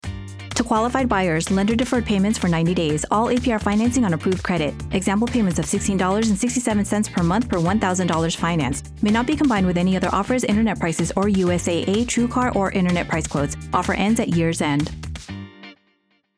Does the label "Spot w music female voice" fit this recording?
No